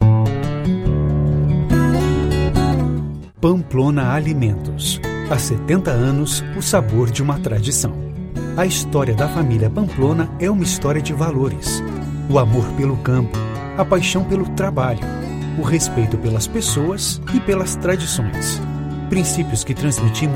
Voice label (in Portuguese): Portuguese Brazil